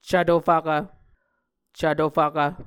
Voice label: "cvto fvkv", muscogee